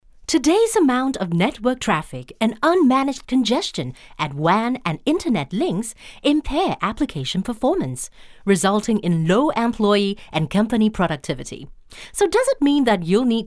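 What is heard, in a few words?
english chinese female voice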